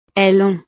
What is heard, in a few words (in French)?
"elles ont", frances